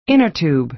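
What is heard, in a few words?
"inner tube", American English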